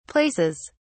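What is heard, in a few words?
"places", British English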